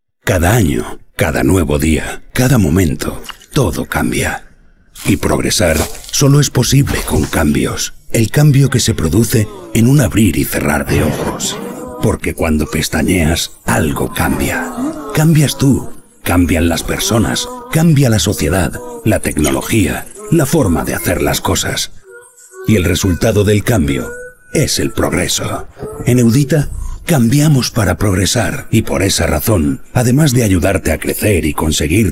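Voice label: spot corporativo voz de marca video promo en linea spanish voiceover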